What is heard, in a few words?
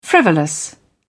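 "frivolous", British English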